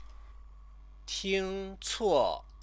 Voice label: "tingcuo", Chinese; 听 错; mishear; resultative verb phrase